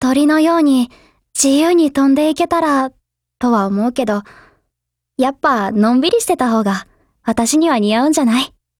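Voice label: DIALOGUE, Japanese; ColtSCW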